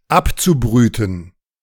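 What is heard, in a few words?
"abzubrüten", German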